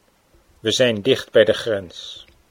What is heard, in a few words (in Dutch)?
we zijn dicht, Dutch